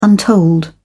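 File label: "untold", British English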